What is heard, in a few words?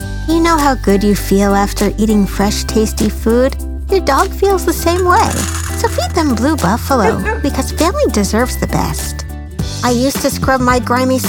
Commercial